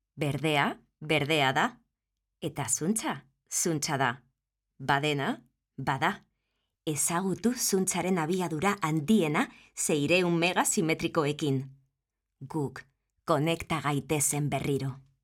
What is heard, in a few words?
female, Spanish Castilian, Basque corporate narration; for basque government